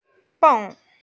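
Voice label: "beng4", Mandarin Chinese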